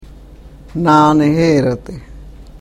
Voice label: karuk

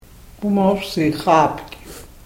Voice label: karuk

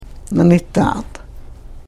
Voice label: "nanitaat", karuk

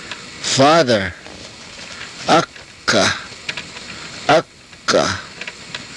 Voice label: "akah", Karuk

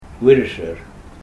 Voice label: "virusur", karuk

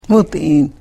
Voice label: karuk

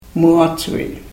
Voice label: "muachviiv", karuk

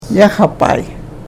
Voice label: karuk

